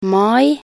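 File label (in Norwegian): "mai", Norwegian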